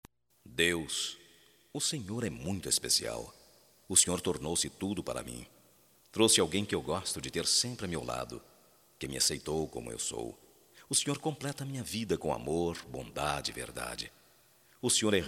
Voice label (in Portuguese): EVANGELICA RELIGIOSA M, Só Voz, masc; Telemensagens; Telemensagens 2017